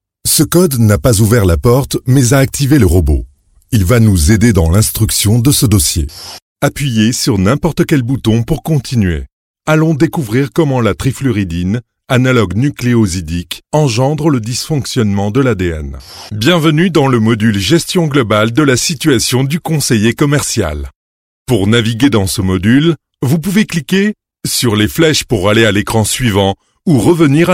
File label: Fr E-Learning